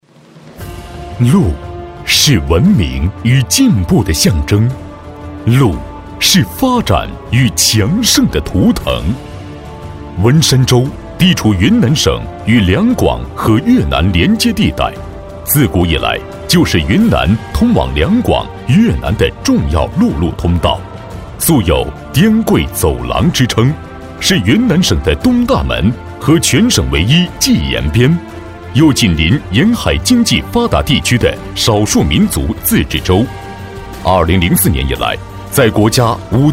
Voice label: 男 专题

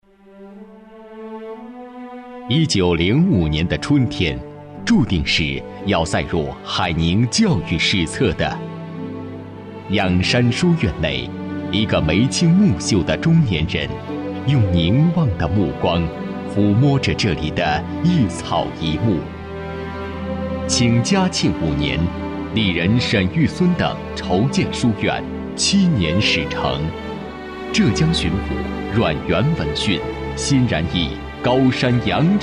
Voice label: 男 专题 专题片; 仰山书院专题片

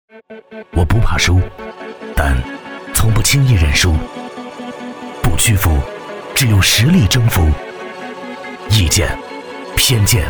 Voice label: 男 旁白 时尚 励志 沉稳; 鸿星尔克

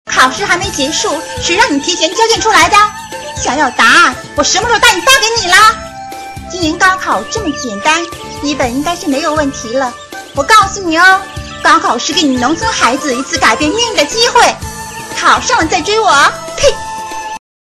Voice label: 女25-模仿, 女; 王祖贤（东成西就）

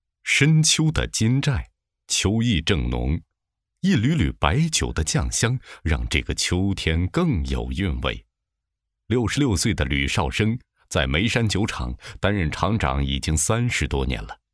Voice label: 男 纪录片; 金台酒

